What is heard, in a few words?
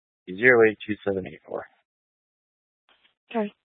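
Police Dispatch, Scanner audio recording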